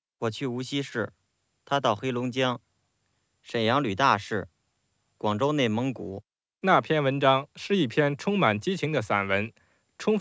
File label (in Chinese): 男 人声 原始